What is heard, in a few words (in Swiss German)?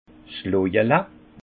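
"lojele", Haut Rhin; vin; gourde en bois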